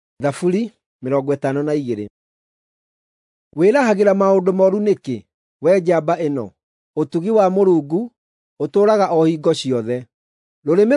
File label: psalms, gikuyu drama